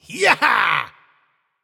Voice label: nv yay; cupid

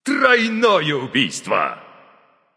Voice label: kill, russian; caster

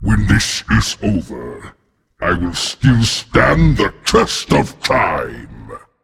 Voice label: taunt, voice; smite; voicepack; tyr

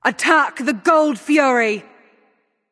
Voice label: vox attack; smite; thanatos

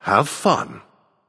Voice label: vox emote; smite; ra; voicepack

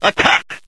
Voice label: vox attack; smite; chronos

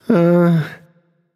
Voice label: confused; danzaburou

